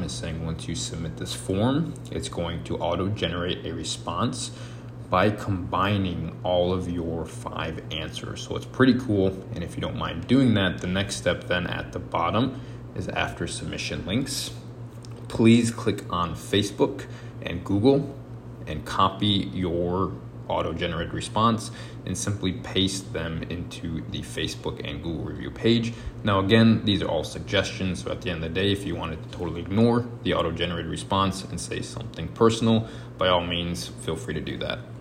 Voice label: Testimonial explainer